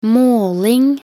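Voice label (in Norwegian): "måling", Norwegian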